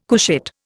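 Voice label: "couchette", Indian English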